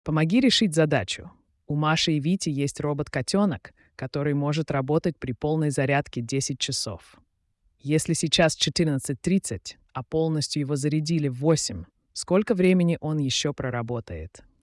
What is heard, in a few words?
clean speech